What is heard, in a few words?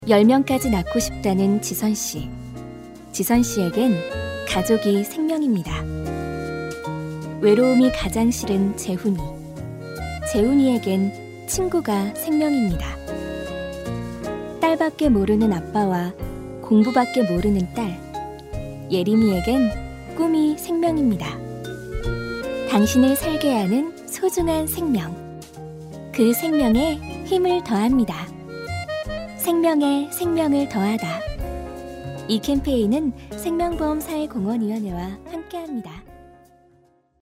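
Korean, female, narration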